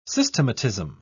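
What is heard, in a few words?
"systematism", British English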